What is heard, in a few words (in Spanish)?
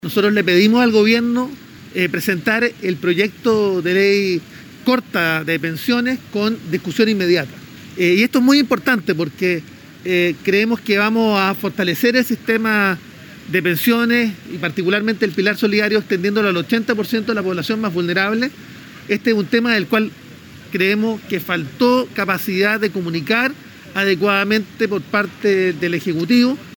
CUÑA; CHAHUAN